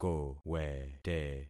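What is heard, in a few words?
"kowete", concatenated syllables; Affixing experiment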